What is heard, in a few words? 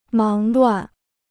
"忙乱", Chinese